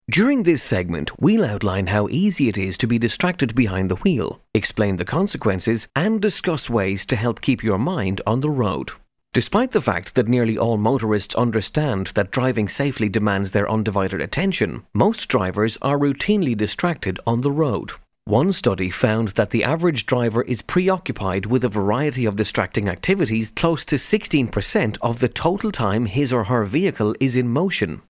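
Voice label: Irish English, male, voice over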